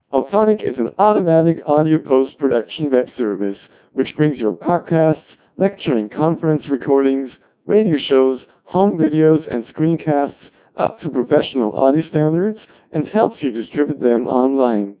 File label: intro orig 1600bps codec2